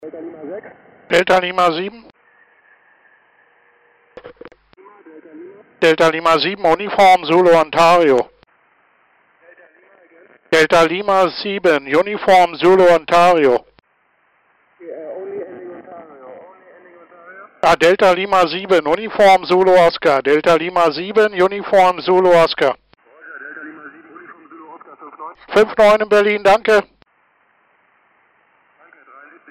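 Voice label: 10 m single sideband radio contact; 3Y0K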